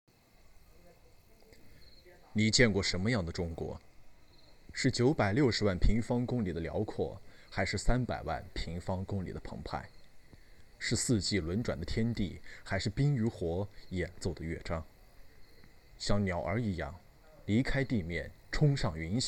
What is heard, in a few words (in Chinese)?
纪录片试音